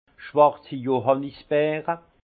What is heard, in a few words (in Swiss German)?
"schwarzi", Alsace; vin; bourgeon de cassis